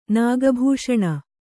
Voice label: "ನಾಗಭೂಷಣ", Kannada